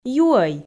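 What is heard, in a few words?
"юӧй", Komi, female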